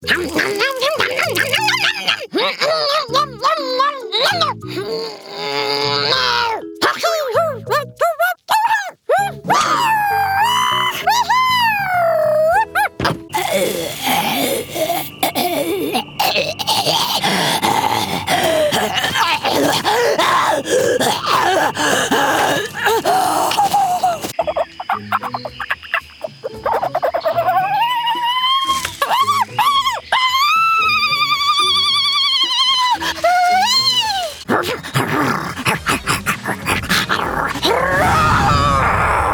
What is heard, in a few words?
Creature